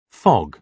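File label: "fog", British English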